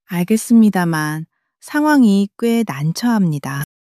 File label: sadness; monologue